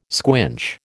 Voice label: "squinch", American